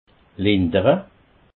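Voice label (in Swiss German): "lindere", Alsatian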